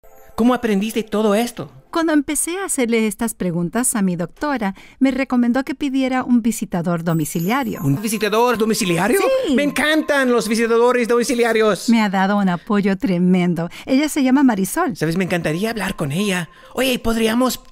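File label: Dubbing Spanish; voiceover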